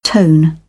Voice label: "tone", British English; oʊ - əʊ